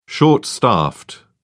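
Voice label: "short-staffed", British English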